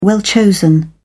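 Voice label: "well-chosen", British English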